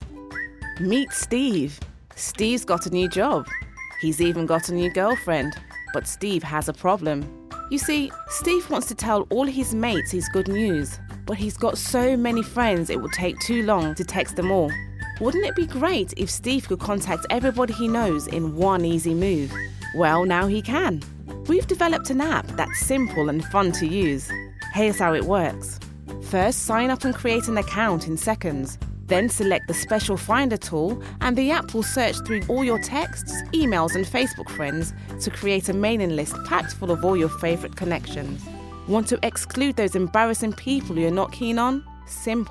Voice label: Explainer Video